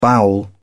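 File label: "bowel", English, British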